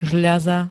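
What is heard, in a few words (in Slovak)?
"žľaza", Slovenské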